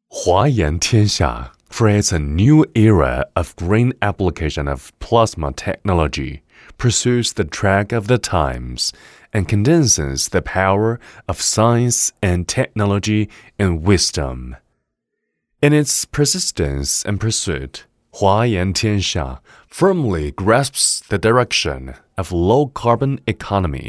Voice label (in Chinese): 男 中文 宣传片; 华阳